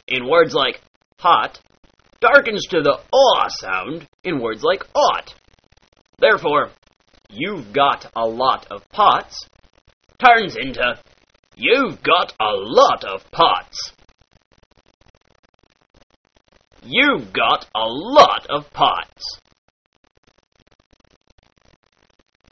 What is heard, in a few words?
pirate, dialects